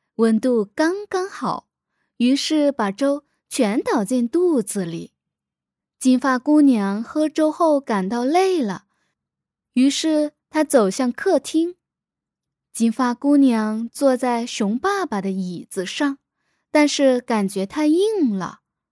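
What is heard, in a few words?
Mandarin Chinese, female, voice over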